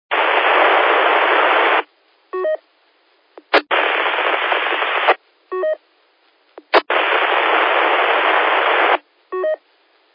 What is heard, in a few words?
qso rec repeater logic